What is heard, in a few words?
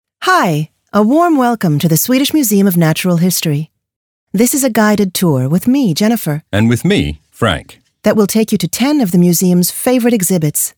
Audioguide Eng Intro